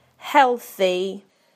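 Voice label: "healthy", British English; PictureDictionary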